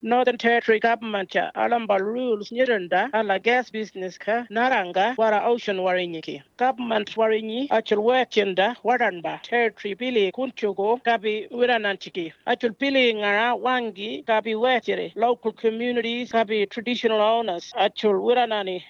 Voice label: warumungu